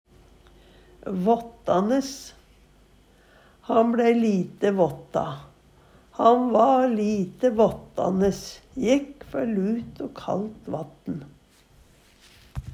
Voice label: "vattanes", Numedalsmål

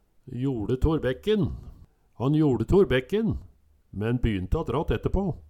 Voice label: joLe tor bekken, Numedalsmål; Han joLe tor bekken, men bynte att ratt etterpå

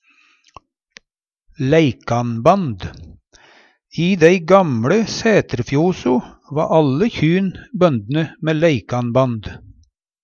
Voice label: "leikanband", Numedalsmål; leik-ende: rørleg nagle som sviv rundt og hindrar tvinning på t.d. kjyband

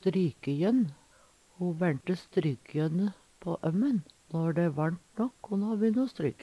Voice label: strykejonn, Numedalsmål

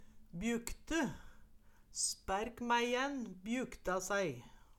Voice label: bjukte, Numedalsmål; Spærkmæiæn bjukta sei

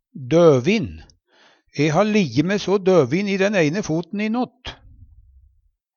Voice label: "døvin", Numedalsmål

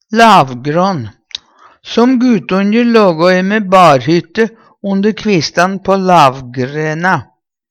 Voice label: "lavgrån", Numedalsmål; Som gutonje lågå e me barhytte onder kvistan på lavgræna.

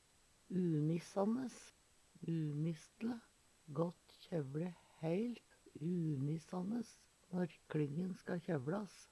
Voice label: umissanes, Numedalsmål; Gått kjævLe æ heilt umissanes når kLingen ska kjævlas.

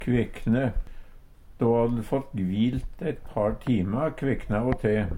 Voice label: kvekkne, Numedalsmål

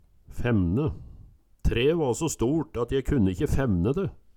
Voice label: femne, Numedalsmål

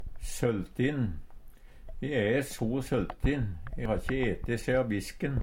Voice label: "søltin", Numedalsmål; svolten; Adverb

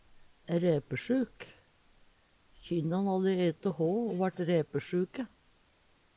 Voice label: ræpesjuk, Numedalsmål; Adjektiv

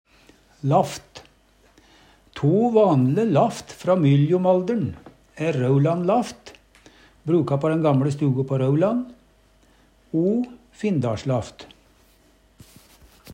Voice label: laft, Numedalsmål

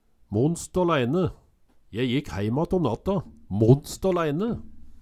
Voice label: monst alaeine, Numedalsmål

